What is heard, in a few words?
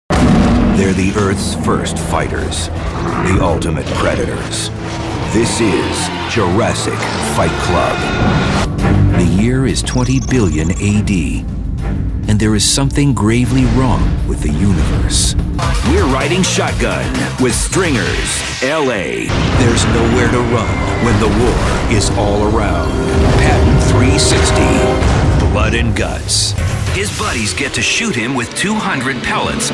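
narration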